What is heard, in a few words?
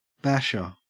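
"basher", Australian English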